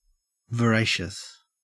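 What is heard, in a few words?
"voracious", Australian English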